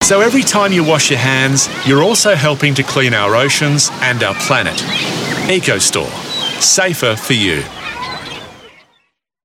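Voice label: AU English, Australian English; Ecostore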